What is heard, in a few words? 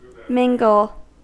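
"mingle", US English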